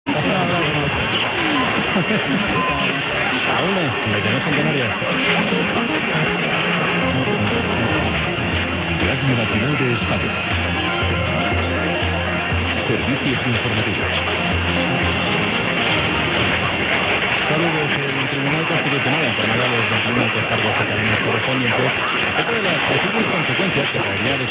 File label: 621 RNE Radio Nacional Tenerife Canary Islands ID